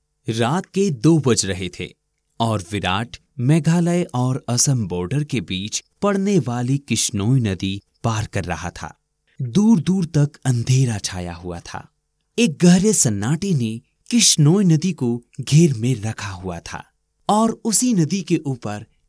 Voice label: Hindi audiobook sample, Professional Male Voice Over